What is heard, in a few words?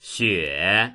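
"xue3", Mandarin Chinese; 雪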